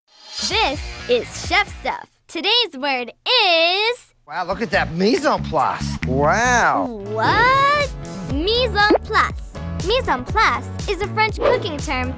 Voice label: Narration VO